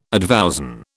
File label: "advowson", British